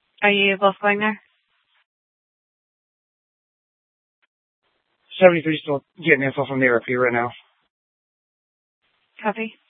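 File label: Mesa Police Department Superstition Dispatch; Mesa PD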